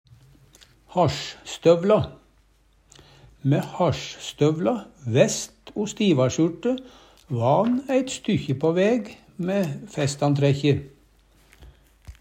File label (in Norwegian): hasjstovla, Numedalsmål; Mæ hasjstøvla, væst o stivasjuRte va ein eit stykkje på væg mæ fæstantrækkje